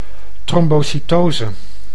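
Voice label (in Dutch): "trombocytose", Dutch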